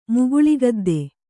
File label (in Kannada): "ಮುಗುಳಿಗದ್ದೆ", Kannada